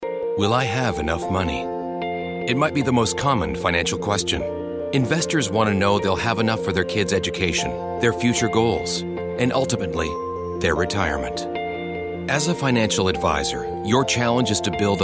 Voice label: men narration